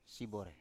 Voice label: "sibore", Biak

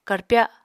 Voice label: "karpya", Apatani

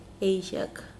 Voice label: ten, Mokilese

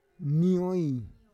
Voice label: "nioi", Roon

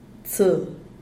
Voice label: "tse", tuna; Díaz Ordaz

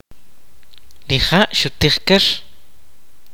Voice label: "he ate fish", kaqchikel

